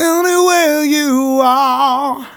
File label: Phrase Male; Vengeance Samples